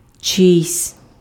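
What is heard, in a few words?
"чийсь", Ukrainian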